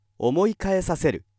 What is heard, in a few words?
male